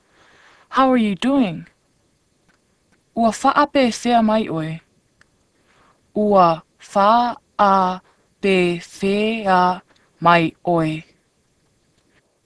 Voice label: Samoan Greet